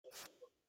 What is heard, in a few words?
voice message; whatsapp